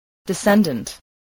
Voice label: "descendant", English

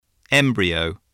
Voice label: "embryo", spelling

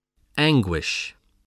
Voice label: "anguish", spelling

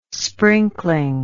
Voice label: "sprinkling", English

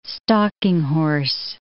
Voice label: "stalking horse", English

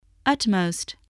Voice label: "utmost", English

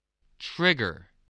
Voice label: "trigger", voice

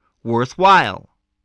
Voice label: "worthwhile", voice, english

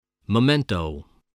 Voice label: "memento", voice, spelling

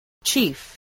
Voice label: "chief", English, voice

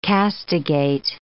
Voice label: "castigate", English

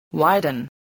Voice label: "widen", voice, english

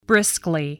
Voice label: "briskly", spelling

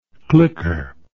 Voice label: "clicker", English

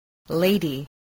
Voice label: "lady", English

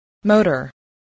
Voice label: "motor", English

